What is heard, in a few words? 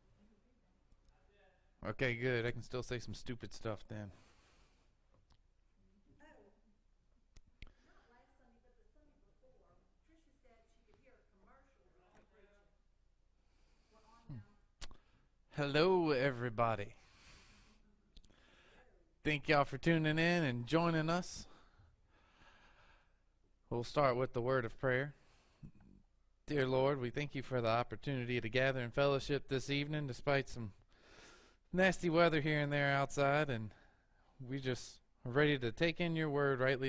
Preaching